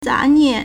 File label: "za2nian4", Chinese